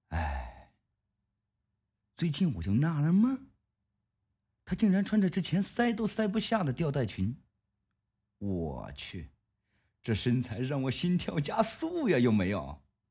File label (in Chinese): 小说配音